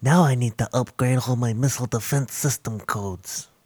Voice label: Nerd Win; blackjack